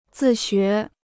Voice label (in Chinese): "自学", Chinese